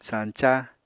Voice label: "sañca", Apatani

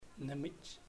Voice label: ground earth, chamacoco; Puerto Diana

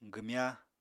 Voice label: "gwmya", Apatani

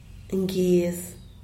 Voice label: "ngyez" fuerte; Díaz Ordaz

